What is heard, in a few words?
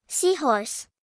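"seahorse", English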